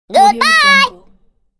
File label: cartoon goodbye shout; fxprosound